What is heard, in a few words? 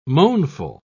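"moanful", British English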